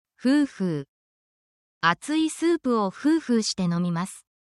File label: japanese eating drinking actions; itadakimasu